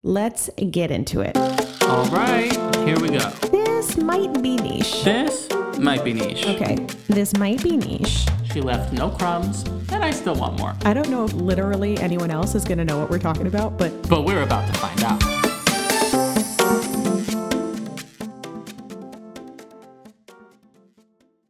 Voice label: Podcast Promo Intro